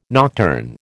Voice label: "nocturne", American